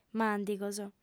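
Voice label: "màndigos", Sardinian, female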